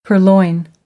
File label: "purloin", American English